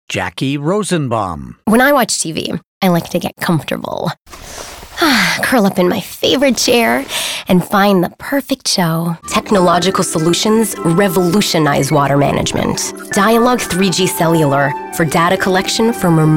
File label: Female Commercial Voices